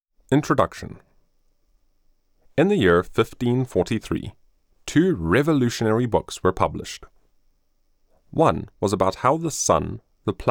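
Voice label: Audiobook, Male Audiobook Narrator; male voice actor and audiobook narrator based in KwaZulu-Natal, South Afri; Science in the Scientific Revolution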